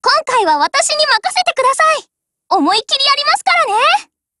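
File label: black action, voice, Japanese; GF Alarm; C96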